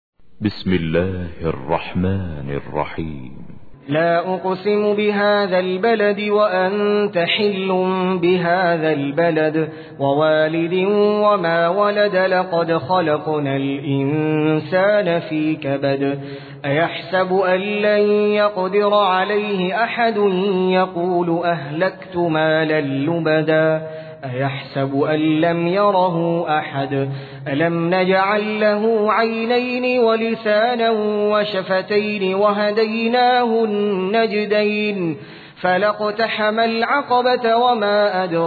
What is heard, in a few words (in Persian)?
ترتیل; سوره بلد